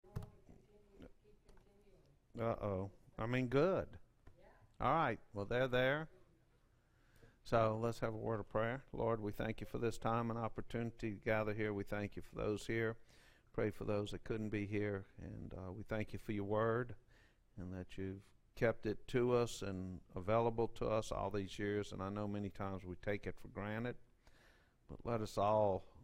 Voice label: Preaching